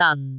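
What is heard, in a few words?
"dan4", Cantonese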